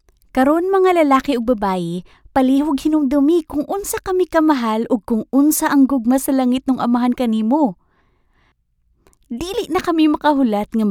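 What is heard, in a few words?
Cebuano Female Voice Over Voice Sample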